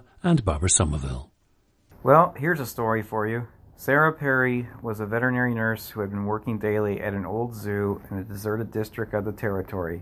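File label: michigan, International Dialects of English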